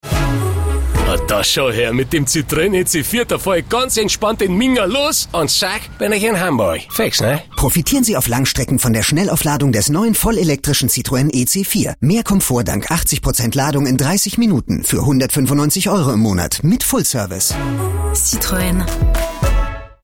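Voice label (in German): Citroen Dialekt München Hamburg, Comedy, Commercial (Werbung), German, Bayrisch, Norddeutsch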